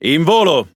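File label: Speech Pilots Torpedo Airborne, Italian; World Of Warships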